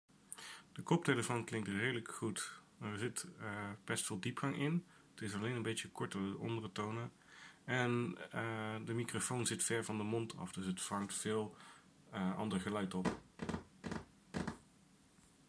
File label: JBL Tune 750BTNC Mic Test